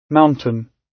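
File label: "mountain", British English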